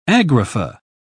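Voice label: "agrapha", British English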